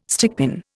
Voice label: "stickpin", Indian